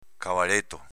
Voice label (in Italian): "cavaleto", dialetto veneto